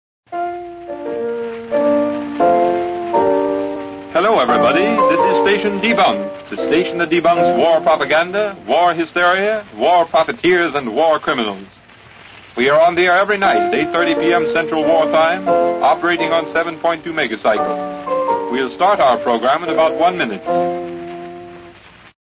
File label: STATION SIGN ON; DEBUNK